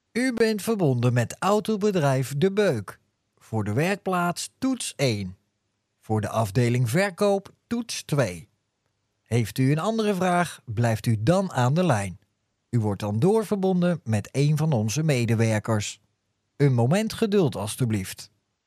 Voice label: 荷兰语男声

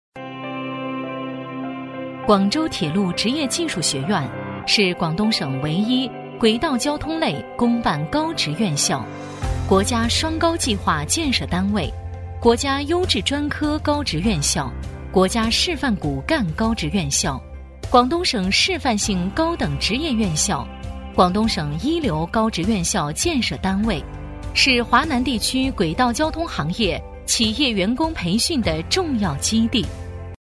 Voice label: 女, 学校介绍, 铁路职业技术学院, 知性大气; 学校宣传

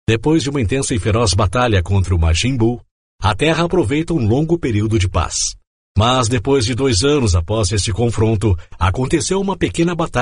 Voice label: 葡萄牙语男声

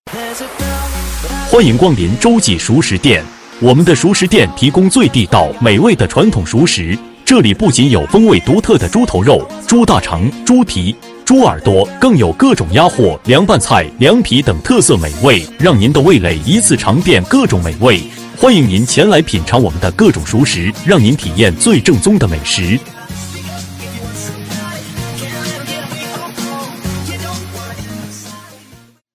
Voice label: 【激情促销男】-熟食店欢迎前来品尝; 周记熟食店

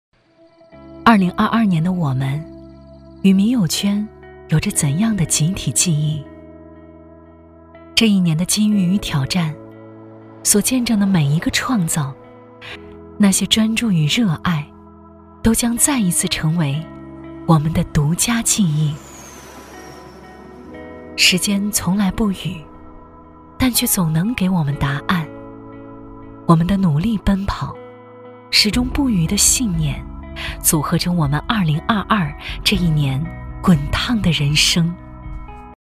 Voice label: 女 走心旁白 低沉走心

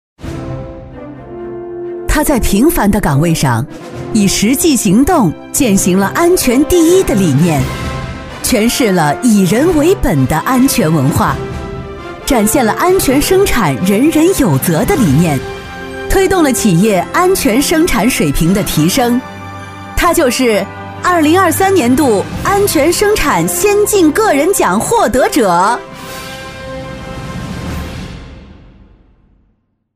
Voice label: 女-颁奖-大气浑厚; 年会颁奖配音; 女42-颁奖-大气浑厚

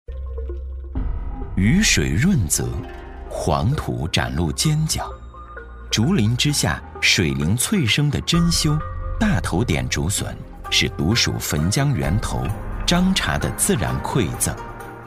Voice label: 男 纪录片 舒缓抒情; 沙口笋; 男83-纪录片《沙口笋》-舒缓抒情

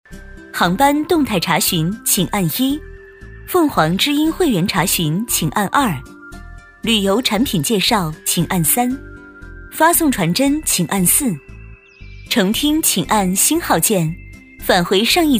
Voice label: 女 彩铃 机场电话铃声 大气庄重; 大气庄重 年轻稳重

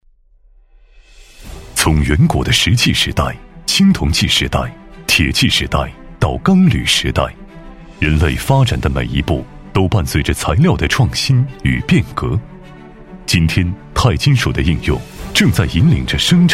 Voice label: 男 企业专题 大气科技; 磁性品质; 质感磁性

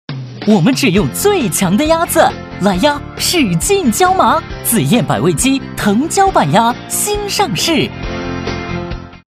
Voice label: 男 促销广告 轻快活泼; 藤椒板鸭

